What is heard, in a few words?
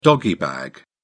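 "doggy bag", British English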